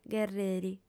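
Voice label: "gherreri", female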